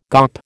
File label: "gawp", American